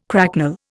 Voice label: "cracknel", Indian

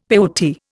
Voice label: "peyote", Indian; Dictionary

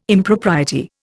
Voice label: "Impropriety", Indian

